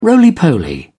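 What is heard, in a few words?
"roly poly", English, British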